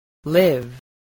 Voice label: "live", spelling